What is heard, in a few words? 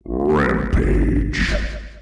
"rampage", male